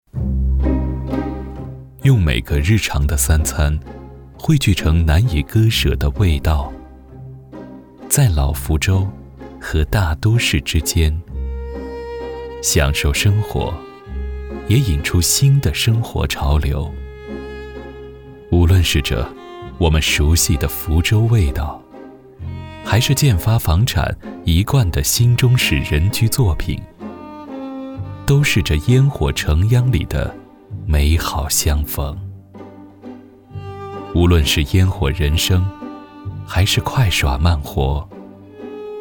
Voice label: 男, 品质广告, 地产, 低沉磁性; 建发·璞云

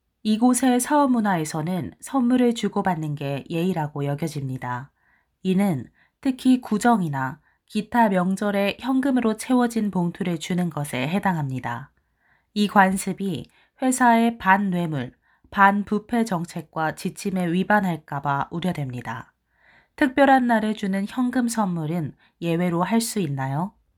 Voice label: 韩语女 自然陈述

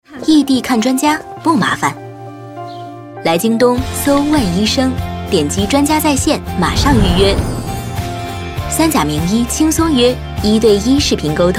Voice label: 女 广告 现代科技优雅自信; 手机看病-来京东健康

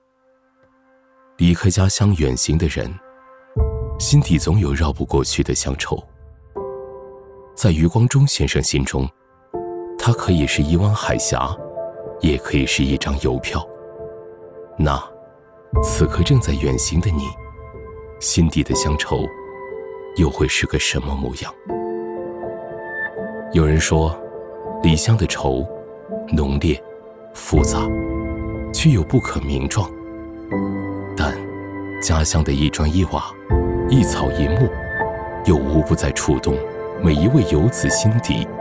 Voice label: 男 专题解说; 高安市新街镇

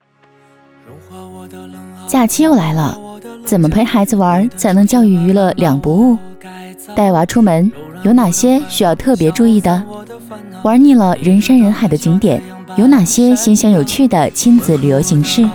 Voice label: 女, 广告; 陪娃

